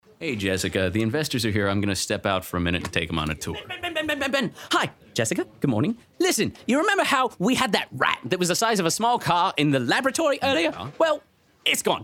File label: Voice Acting